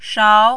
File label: "shao2", Mandarin